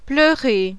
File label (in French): "PLEURER", langue française; VERBE LABILE